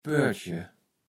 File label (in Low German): "Pörtche", Platt